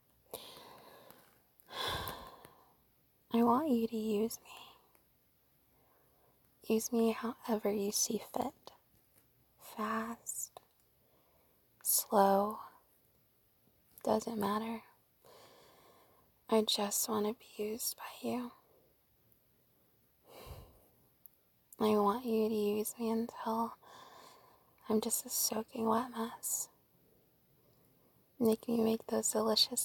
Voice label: [F4M] [narrative] [needy]; I want you to use me; SCRIPT FILL; self-degradation; breeding; begging